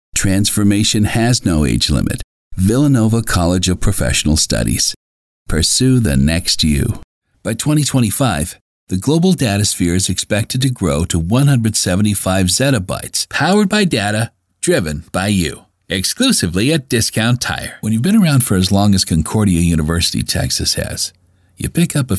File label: Authentic Youthful Commercial